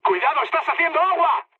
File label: damaged water, mood high, speech; War Thunder